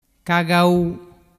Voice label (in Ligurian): "cagau", dialettu